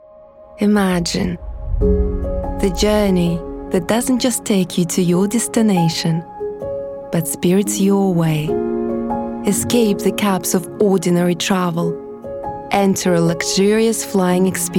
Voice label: English